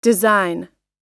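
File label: "design", American English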